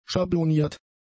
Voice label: "schabloniert", german; adjectives